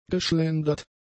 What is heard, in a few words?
"geschlendert", German; adjectives